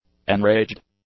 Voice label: "enraged", English; adjectives

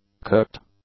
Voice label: "cooked", English; adjectives

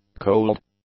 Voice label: "cold", English; adjectives

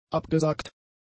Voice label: "abgesagt", German; adjectives

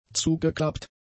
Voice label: "zugeklappt", German; adjectives

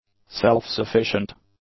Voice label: "self-sufficient", English; adjectives

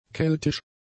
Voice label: "keltisch", German; adjectives

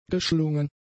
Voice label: "geschlungen", German; adjectives

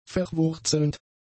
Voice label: "verwurzelnd", German; adjectives

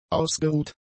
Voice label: "ausgeruht", German; adjectives

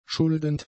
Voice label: "schuldend", German; adjectives

